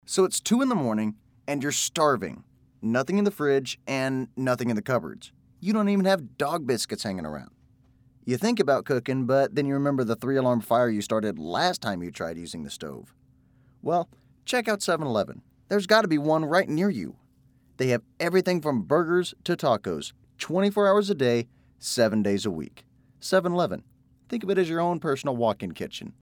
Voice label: commercial